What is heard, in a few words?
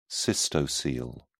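"cystocele", British English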